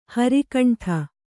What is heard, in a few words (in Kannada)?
"ಹರಿಕಂಠ", Kannada